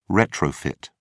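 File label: "retrofit", British English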